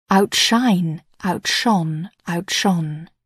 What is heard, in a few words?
"outshine", English